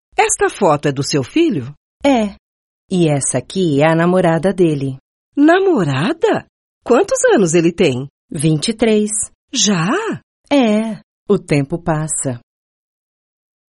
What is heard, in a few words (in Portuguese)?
dialogos, portugues